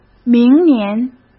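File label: "明年", Mandarin Chinese; hsk; chinese flashcards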